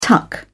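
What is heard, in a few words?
"tuck", British English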